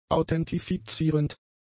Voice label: "authentifizierend", German; adjectives

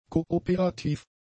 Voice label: "kooperativ", German; adjectives

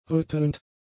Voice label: "rüttelnd", German; adjectives

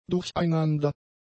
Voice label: "durcheinander", German; adjectives

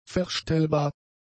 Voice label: "verstellbar", German; adjectives

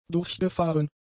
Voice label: "durchgefahren", German; adjectives

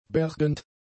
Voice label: "bergend", German; adjectives

